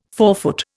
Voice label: "forefoot", Indian